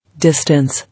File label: "Distance" English